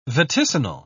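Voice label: "vaticinal", British English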